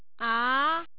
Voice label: Second Tone, The tone starts from a lower level and ends up high